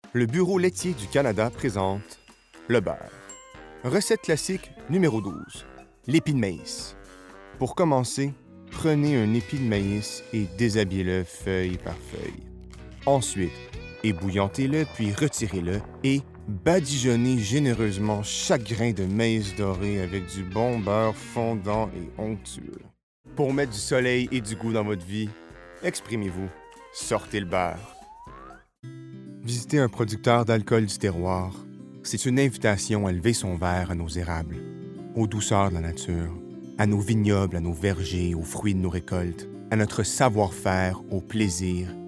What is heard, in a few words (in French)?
Publicité FR; Complet